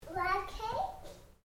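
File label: MALE 2 year old CURIOUS; Talking Sound Effects; Talking (Sound Effects:Voice)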